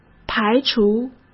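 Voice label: "排除", Mandarin Chinese; hsk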